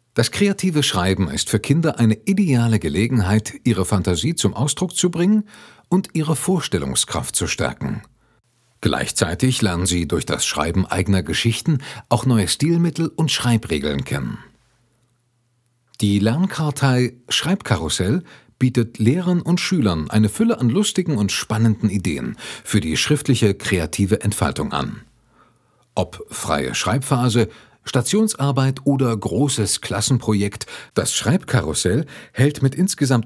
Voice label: e-learning